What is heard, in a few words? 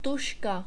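"tuzka" Czech, male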